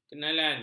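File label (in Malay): "kenalan", Malay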